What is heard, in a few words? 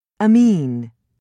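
"amine", English, British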